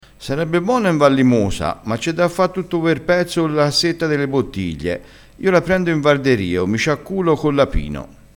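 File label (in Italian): "apino", dialettale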